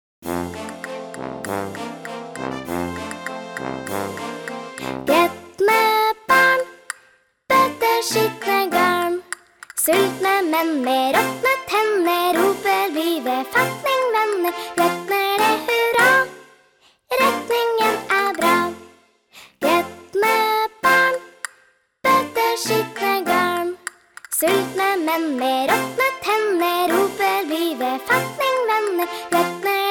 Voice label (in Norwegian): Nasal T N vers